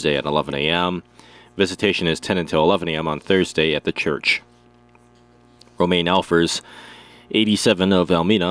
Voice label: Funeral Announcements